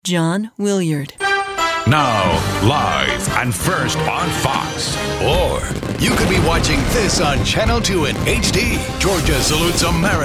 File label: tv affilates men; tv affiliate